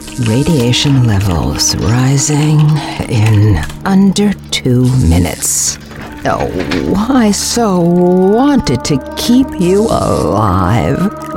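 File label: Video Game, en-US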